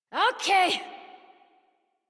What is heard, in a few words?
Horse Stop Adult; Warframe; Duviri